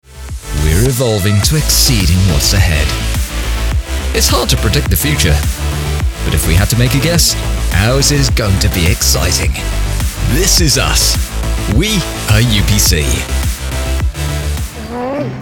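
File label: voiceover commercial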